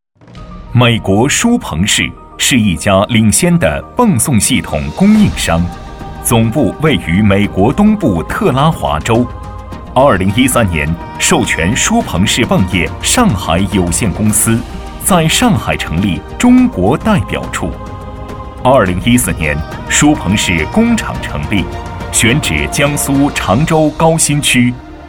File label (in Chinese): 男; 美国舒朋士